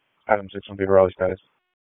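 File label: Police Southwest District Disp, radio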